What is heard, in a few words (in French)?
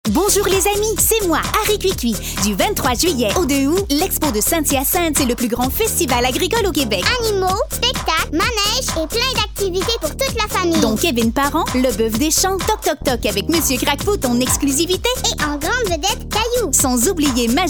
Pub radio; expo saint hyacinthe; satq; congres 2015